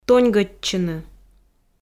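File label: "тоньгӧдчыны", Komi, female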